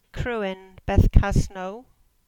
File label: "Bothcasnoe Reservoir" Cornish